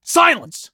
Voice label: silence; Escape from Tarkov